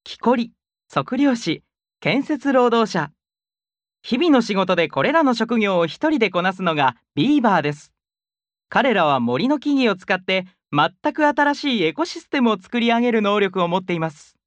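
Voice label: Japanese, male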